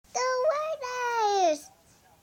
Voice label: yells go raiders